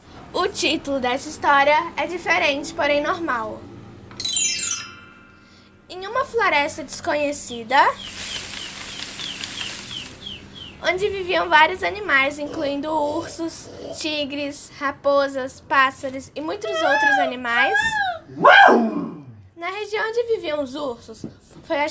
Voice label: AUDIOBOOK